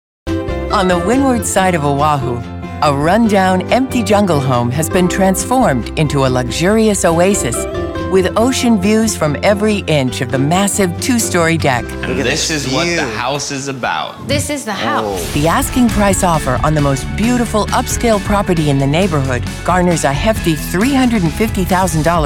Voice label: TV Narration